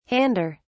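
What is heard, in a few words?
"hander", British English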